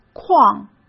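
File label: "矿", Mandarin Chinese; chinese-flashcards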